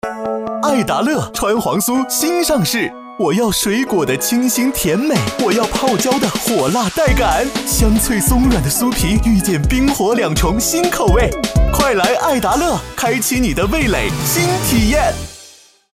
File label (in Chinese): 广告 蛋糕; 爱达乐蛋糕